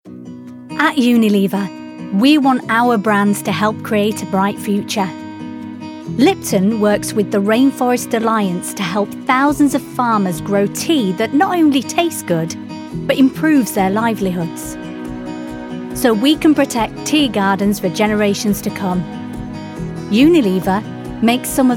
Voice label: Narration

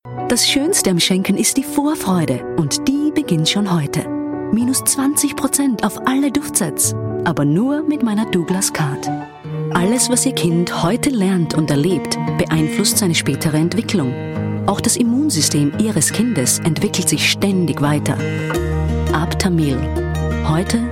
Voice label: German

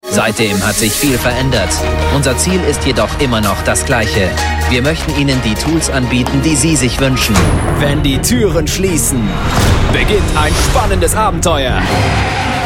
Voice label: German

